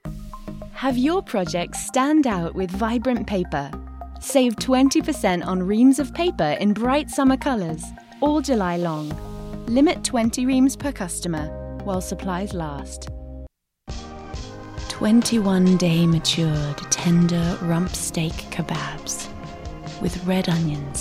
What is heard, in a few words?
British